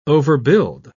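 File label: "overbuild", British English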